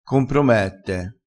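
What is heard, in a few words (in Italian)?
"compromette", vocabolario dialettale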